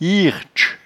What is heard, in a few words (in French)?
"hiertche", patois jura